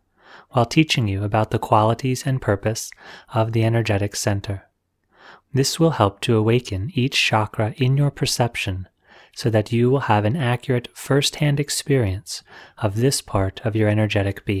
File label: Meditation Opening